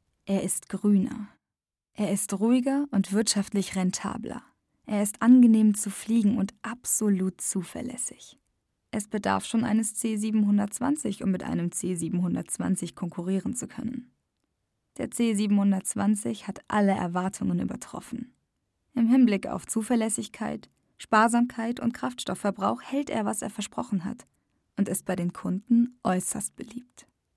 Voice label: Beispiel Werbung

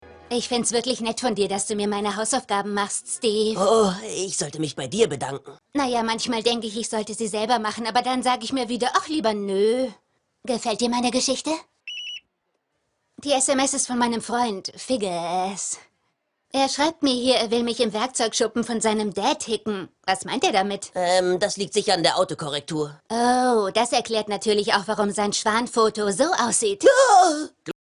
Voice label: Synchron; American Dad

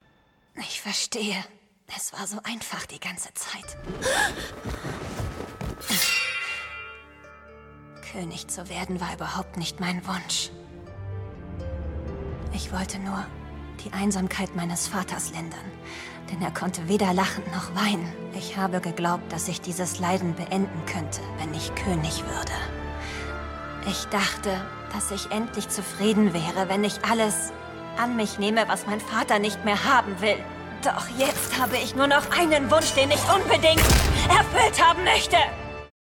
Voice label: Synchron; Fate Apocrypha

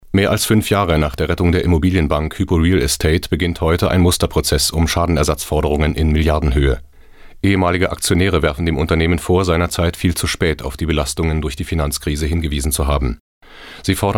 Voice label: Nachrichten

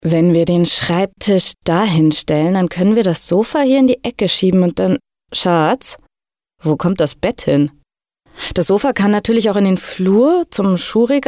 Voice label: Haltung ruhig; Ruhig, cool

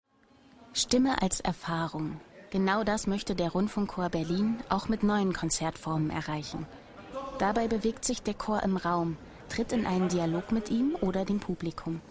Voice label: Doku; rbb; Kommentar Doku

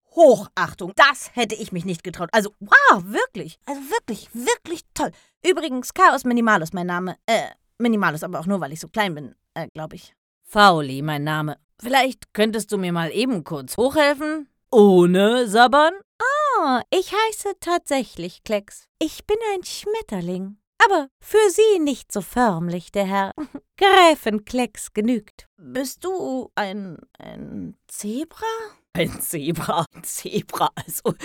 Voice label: Hoerspiel; verschiedene Stimmen

